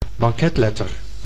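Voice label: "banketletter", Dutch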